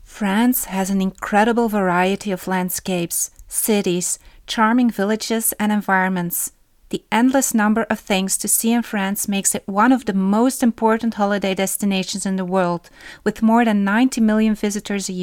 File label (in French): anglais